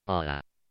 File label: "hola", spanish